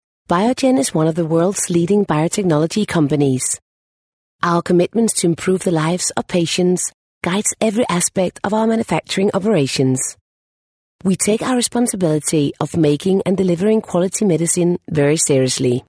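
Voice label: Danish, female, English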